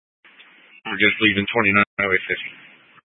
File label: Incident Tac 1, scanner